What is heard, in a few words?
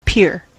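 "peer", US English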